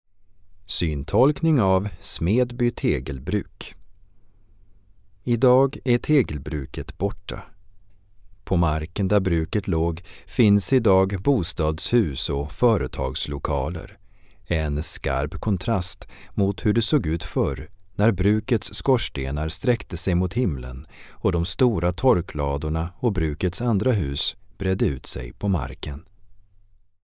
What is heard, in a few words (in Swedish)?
Syntolkning av Smedby tegelbruk; akerskanal ljudguider